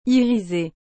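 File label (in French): "irisé", French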